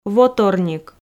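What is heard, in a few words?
"воторник", Komi, female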